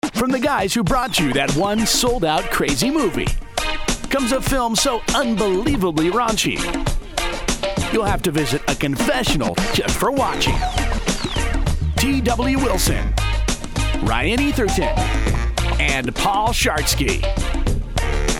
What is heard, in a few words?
Movie Trailer Comedy